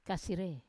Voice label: "kasire", wamesa; waist